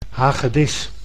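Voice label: "hagedis", Dutch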